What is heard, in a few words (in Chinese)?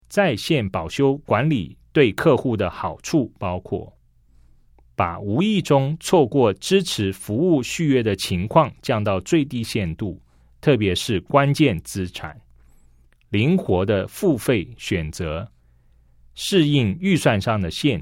CHINESE